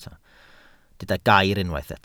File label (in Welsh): "gair", Welsh